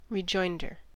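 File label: "rejoinder", American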